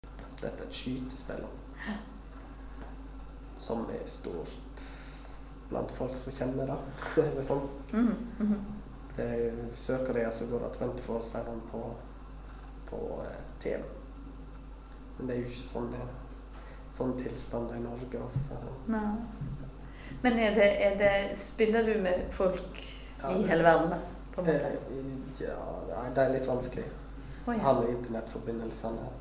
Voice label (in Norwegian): Eidfjord, dialekt